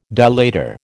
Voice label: "dilator", American

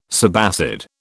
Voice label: "subacid", British

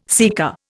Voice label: "sika", Indian

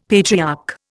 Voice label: "patriarch", Indian; Dictionary

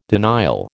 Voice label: "denial", American

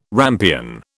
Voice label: "rampion", British

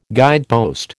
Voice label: "guidepost", American

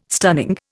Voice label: "stunning", Indian

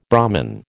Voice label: "Brahmin", American

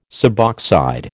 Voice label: "suboxide", American

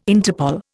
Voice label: "Interpol", Indian

